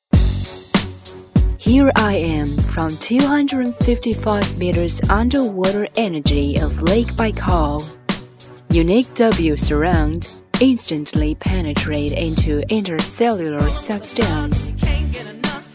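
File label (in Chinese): 女英大音-广告; 广告海洋护肤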